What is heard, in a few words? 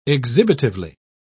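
"exhibitively", British English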